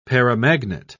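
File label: "paramagnet", British English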